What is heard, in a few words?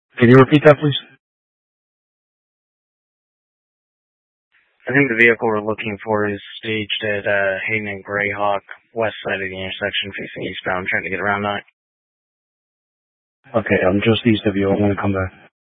Scottsdale Police, Simulcast